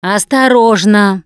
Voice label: warn careful, russian, Survivor Voice Producer